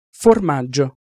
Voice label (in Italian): "formaggio", Italian Pronunciations